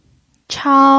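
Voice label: "chao", pinyin, first tone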